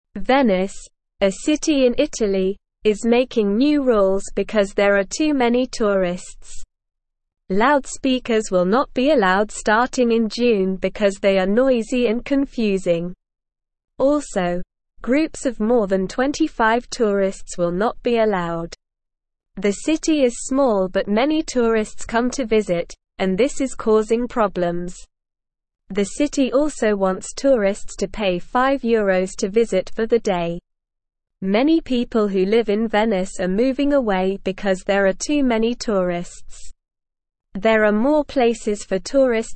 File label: English SLOW Reading; Venice Makes New Rules to Manage Visitors; English Newsroom